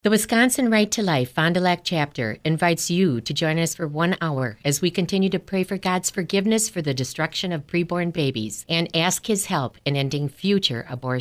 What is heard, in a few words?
Prayer Service ad